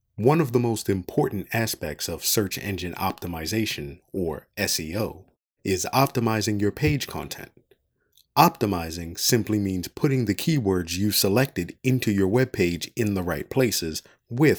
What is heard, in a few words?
tech narration